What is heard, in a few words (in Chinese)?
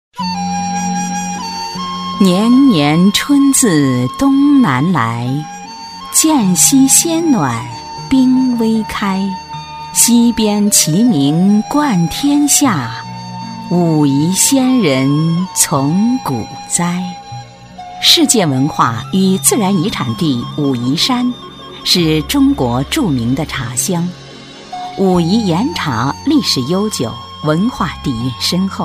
女 纪录片 水墨舒缓, 舒缓抒情; 武夷茶